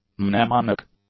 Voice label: "mnemonic", English; adjectives